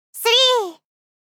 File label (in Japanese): 「3（スリー）」 少女 中音域